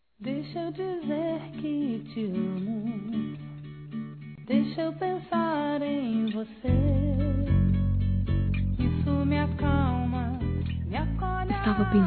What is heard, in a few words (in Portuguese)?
ROMANT.PICANTE, fem; Telemensagens; Sensuais